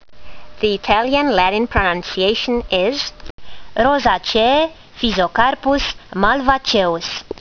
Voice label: latin; Physocarpus malvaceus